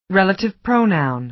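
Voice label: "relative pronoun", American English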